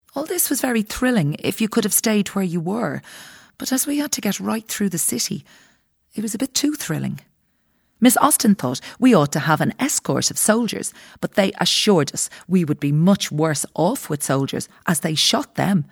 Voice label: Documentary Character; Doris in Letter from Ireland; BBC Radio 4